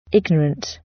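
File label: "ignorant", British English; Space, the planets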